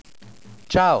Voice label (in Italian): "Ciao", Italian